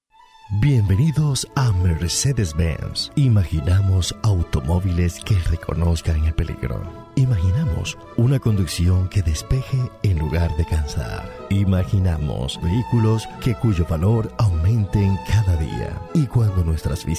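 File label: COMMERCIALS SPANISH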